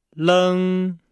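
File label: "leng", Chinese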